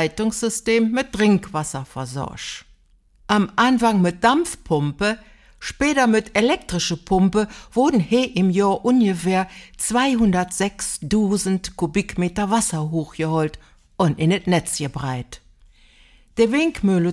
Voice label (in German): audio guide platt, altes wasserwerk; neuss historisch